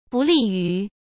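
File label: "不利于", Mandarin Chinese; hsk; chinese-flashcards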